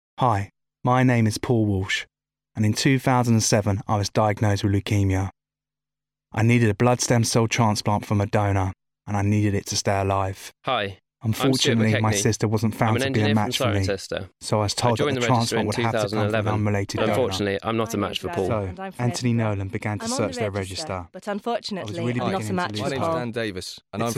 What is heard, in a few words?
radio advert; perfect match